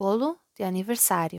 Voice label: "Bolo de Aniversario", Portuguese; happy birthday in portuguese

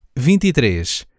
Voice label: "vinte e três", Portuguese; numbers in portuguese

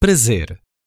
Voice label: "Prazer", Portuguese

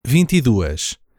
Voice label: "vinte e duas", Portuguese; numbers in portuguese

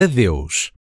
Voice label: "Adeus", Portuguese

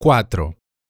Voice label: "quatro", Portuguese; numbers in portuguese

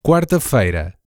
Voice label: "quarta-feira", Portuguese

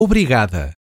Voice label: "Obrigada", Portuguese

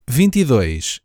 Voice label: "vinte e dois", Portuguese; numbers in portuguese

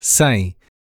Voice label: "Cem", Portuguese; numbers in portuguese

